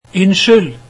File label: Sorry, norwegian